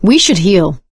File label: suggest health, survivor voice; source engine; left 4 dead 2; producer